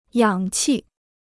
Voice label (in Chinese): "氧气", Chinese